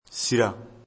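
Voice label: "sira", Bambara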